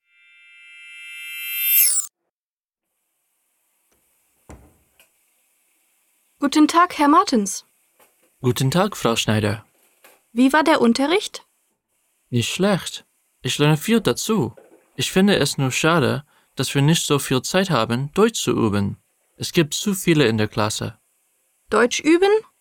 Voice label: dialog, german; germanpod101